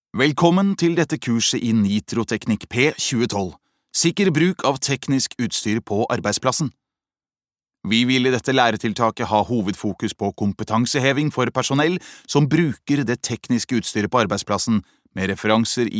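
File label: Norwegian elearning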